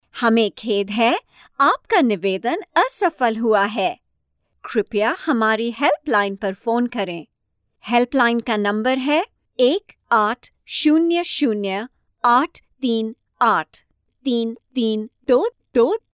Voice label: Hindi IVR; India Female; Singtel